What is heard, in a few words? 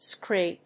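"scree", English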